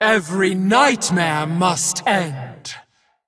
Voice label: Female Dragon Attack